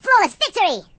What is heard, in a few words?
"Flawless", voices; hedgewars